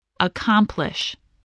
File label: "accomplish", English voice